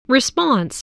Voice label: "response", English

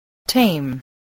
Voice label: "tame", English

